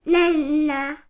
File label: human toddler 18 months boy say banana